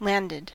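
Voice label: "landed", US English; pronunciations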